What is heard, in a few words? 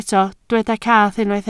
"cath", Welsh